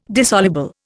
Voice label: "dissoluble", Indian